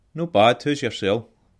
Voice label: fine scots; No bad, hou's yersel?